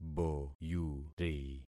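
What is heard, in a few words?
"boyuri", concatenated syllables; Affixing experiment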